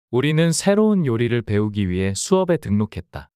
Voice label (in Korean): 문장 남자; 우리는 새로운 요리를 배우기 위해 수업에 등록했다